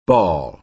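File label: "ball", American English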